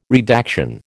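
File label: "redaction", American English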